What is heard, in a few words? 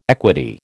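"equity", American English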